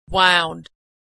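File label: "wound", American English; verb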